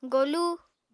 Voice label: "golu", Apatani

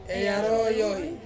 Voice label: "arowoi", Ansus; to swim

